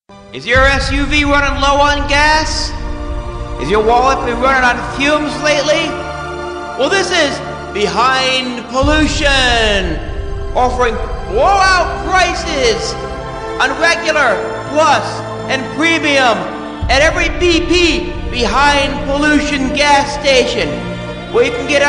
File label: Anti Commercial